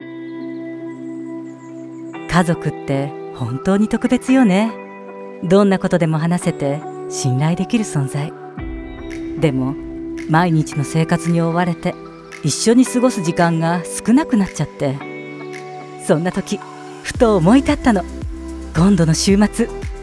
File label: female Japanese commercial